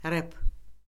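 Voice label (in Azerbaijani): "rep", Azerbaijani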